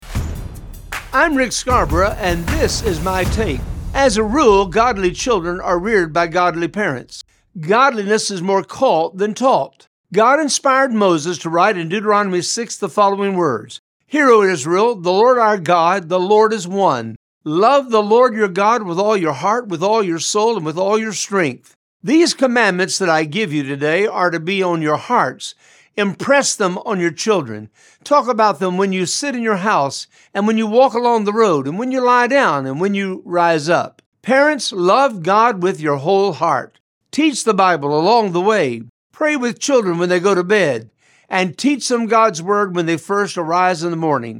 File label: Radio Spot; Godly Children